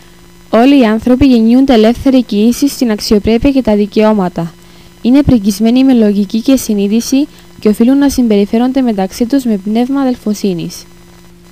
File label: greek